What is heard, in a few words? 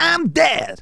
"oh dear", Soul Man